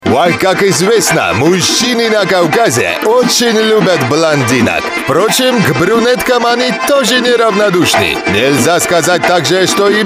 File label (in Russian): kavkazec